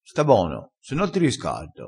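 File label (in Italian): "riscarda", dialettale, crespinese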